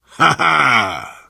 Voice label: taunt, biker; voice

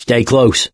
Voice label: stay together, mechanic; source engine; left 4 dead 2

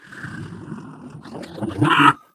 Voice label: impatient

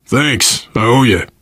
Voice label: thanks, player survivor voice biker

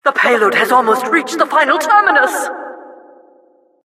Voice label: announcer cart attacker final warning

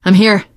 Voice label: im with you, teengirl; source engine; left 4 dead 2; survivor

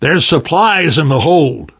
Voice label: on big ship, voice; Left 4 Dead 2; Source Engine; video games; namvet

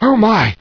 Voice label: fear; Source Engine; bshift; rosenberg

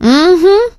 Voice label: positive noise; voice; source engine; left 4 dead 2; survivor; producer

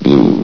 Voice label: "blue", vox; Source Engine; bshift

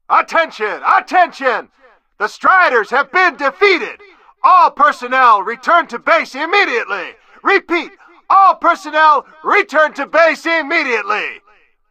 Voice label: loudspeaker victory; ep2; Source engine

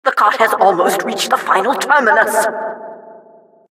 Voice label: announcer cart defender final warning

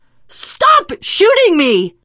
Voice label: friendly fire, teen girl voice; source engine; left 4 dead 2; survivor

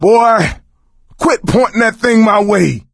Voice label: friendly fire male, player survivor voice; source engine; left 4 dead 2

